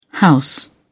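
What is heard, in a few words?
"house", British English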